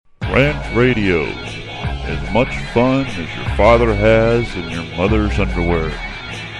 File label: Promo; RantRadio; RantMedia; Station Promos-Listener Submitted